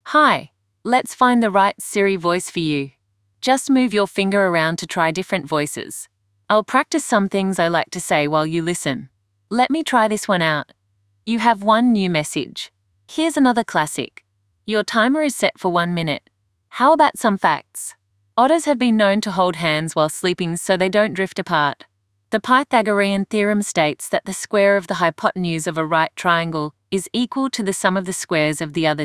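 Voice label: Australian English; iOS 26 Sounds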